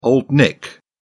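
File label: "old nick", British English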